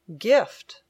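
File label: "gift", English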